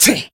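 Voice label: damaged, Japanese; dennie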